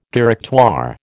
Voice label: "Directoire", American

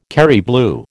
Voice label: "Kerry blue", American

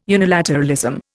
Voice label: "unilateralism", Indian

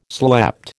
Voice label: "slept", American

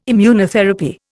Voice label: "Immunotherapy", Indian

